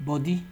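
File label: "body", Nigerian Pidgin, male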